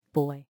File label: boy